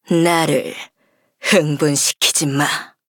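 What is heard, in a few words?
Ultimate, Korean; Sigrid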